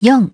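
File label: "four", English; iOS 4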